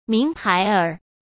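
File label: "名牌儿", Mandarin Chinese; chinese flashcards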